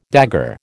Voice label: "dagger", American